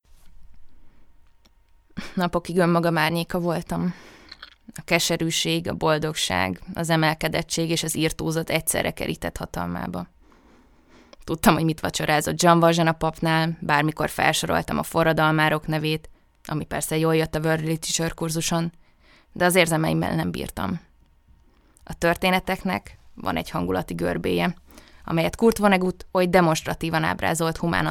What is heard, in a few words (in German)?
Ungarn W